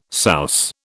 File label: "souse", British